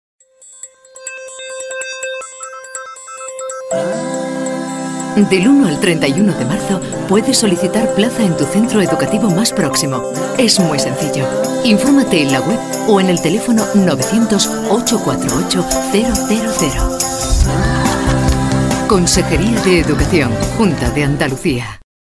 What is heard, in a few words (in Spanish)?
Femenino